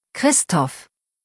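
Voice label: "Christoph", German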